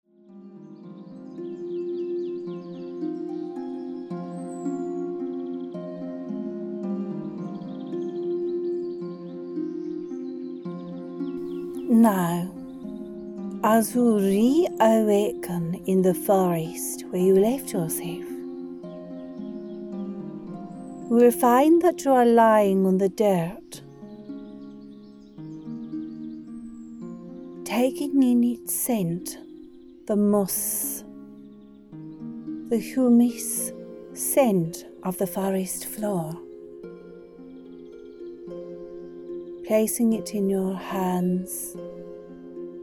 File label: Beltane Earth Elven Meditation